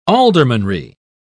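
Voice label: "aldermanry", British English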